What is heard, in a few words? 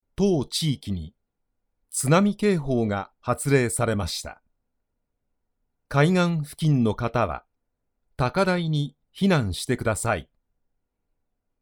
male